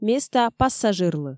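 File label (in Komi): "места пассажирлы", Komi, female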